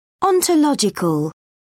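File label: "ontological", British English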